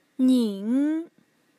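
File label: "ning", Mandarin, tone 3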